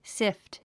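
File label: "sift", English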